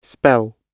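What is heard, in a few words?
"spell", British English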